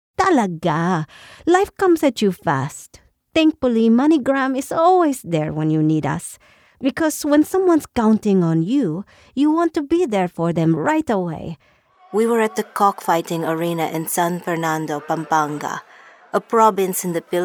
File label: Filipino Accent